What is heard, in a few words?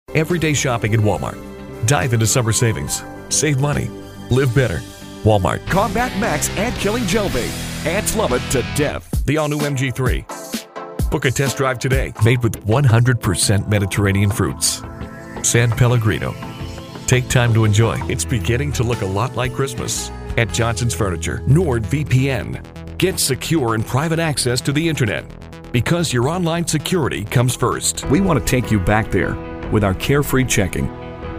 commercial